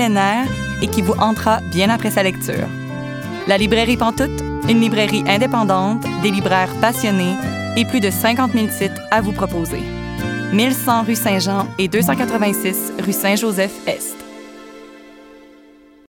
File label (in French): annonceur fr qc naturel amical avec sourire jeune; librairie pantoute